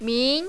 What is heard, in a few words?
"min2", mandarin, female